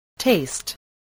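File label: "taste", English word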